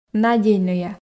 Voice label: "надейнӧя", Komi

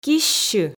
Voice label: "киссьы", Komi

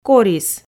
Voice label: "корис", Komi

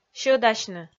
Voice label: "сьӧдасьны", Komi

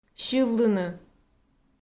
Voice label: "сьывлыны", Komi

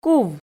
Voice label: "кув", Komi

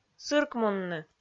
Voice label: "сыркмунны", Komi

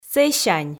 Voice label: "сэсянь", Komi

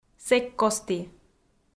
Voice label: "сэккості", Komi

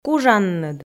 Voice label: "кужанныд", Komi

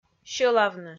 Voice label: "сьӧлавны", Komi

